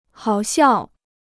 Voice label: "好笑", Chinese

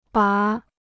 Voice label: "拔", Chinese

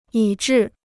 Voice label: "以至", Chinese

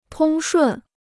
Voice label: "通顺", Chinese

